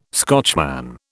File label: "Scotchman", British